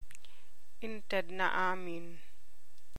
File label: Ilocano